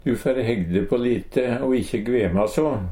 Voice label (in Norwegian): gvema, Numedalsmål